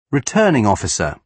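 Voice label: "returning officer", British English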